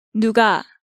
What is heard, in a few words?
"Nuga", Korean